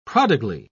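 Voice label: "prodigally", English, British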